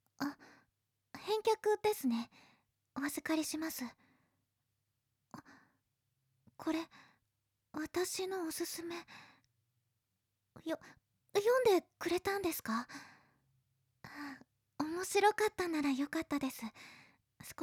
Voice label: girl